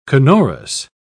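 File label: "canorous", British English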